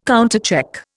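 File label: "countercheck", Indian English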